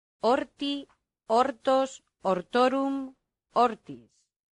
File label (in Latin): "horti", latin; santillana